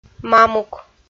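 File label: "мамук" Komi, female; kpv audio dict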